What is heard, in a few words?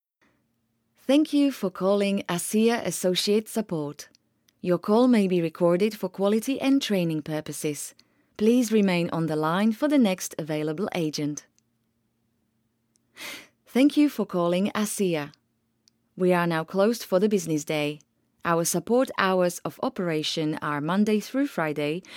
english ivr